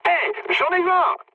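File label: French player killed enemy mood med; War Thunder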